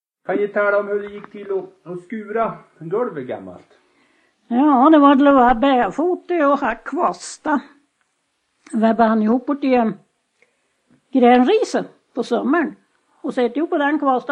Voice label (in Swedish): Anundsjo, dialekter; ANG